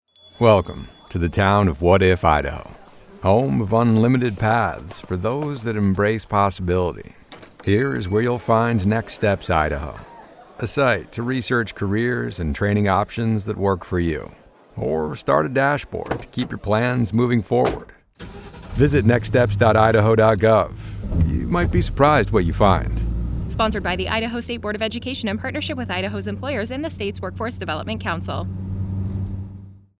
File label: Radio